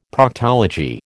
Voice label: "Proctology", American